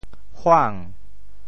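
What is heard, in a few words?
"huang2", Teochew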